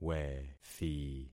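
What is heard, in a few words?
"wethi", concatenated syllables; Affixing experiment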